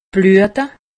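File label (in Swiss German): "bluete", Alsatian; medecine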